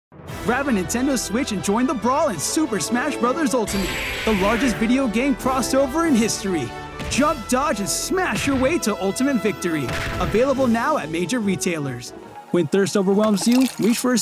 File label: men commercial